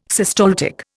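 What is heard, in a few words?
"systaltic", Indian English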